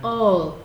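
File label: "all", Nigerian Pidgin, female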